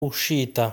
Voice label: "Uscita", Italian

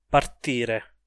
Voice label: "partire", Italian

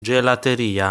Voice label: "Gelateria", Italian

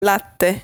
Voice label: "Latte", Italian

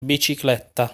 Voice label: "Bicicletta", Italian; lesson 6 articles plural

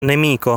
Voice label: "Nemico", Italian; lesson 6 articles plural

